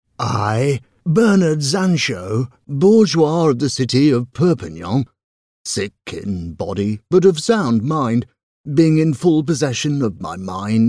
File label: voiceover audioguide character statue; audioguides